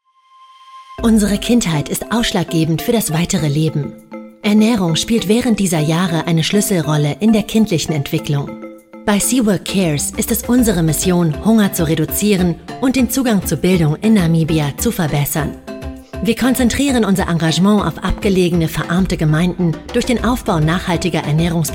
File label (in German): Deutsche Version Sprecherin; Seawork Cares